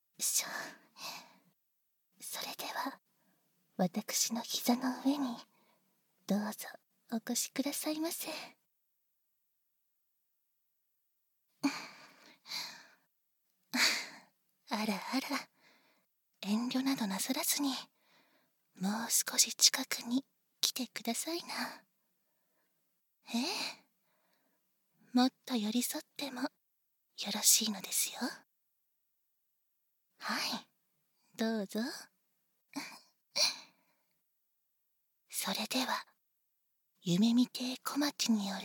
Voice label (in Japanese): 耳かき両耳(囁き重視編集 効果音あり大きめ), ASMR; 効果音大差分